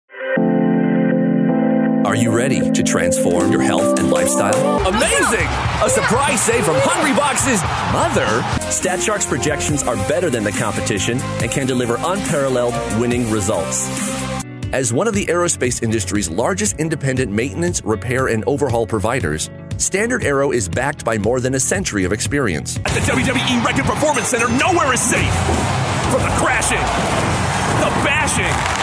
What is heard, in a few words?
Commercial